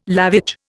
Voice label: "lavage", Indian